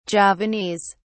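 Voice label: "javanese", British English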